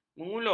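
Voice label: "menyuluh", Malay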